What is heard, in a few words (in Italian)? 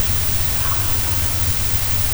voce femminile; Castello Salizzole